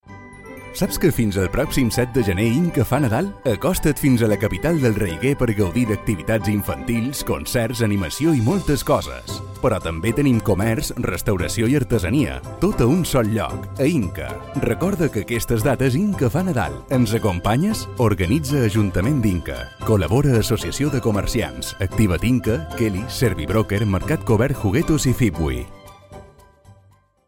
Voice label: Commercial